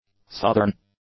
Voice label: "southern", english; adjectives